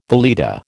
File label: "valeta", American